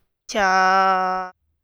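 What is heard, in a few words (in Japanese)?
"ちゃ", Japanese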